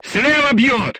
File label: voice message shot left, crew tanks russian